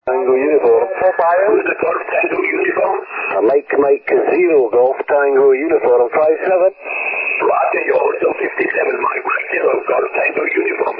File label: 40m ssb